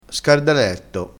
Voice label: "scardaletto", crespinese

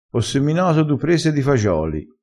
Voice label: "presa", vocabolario dialettale

